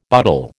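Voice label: "butle", American English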